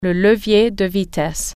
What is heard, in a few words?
gear shift, french